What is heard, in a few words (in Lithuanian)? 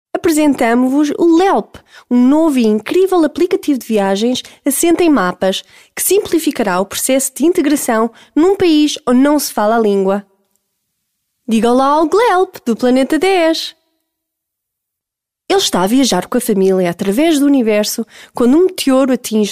MOT Portugalu balsas, moteris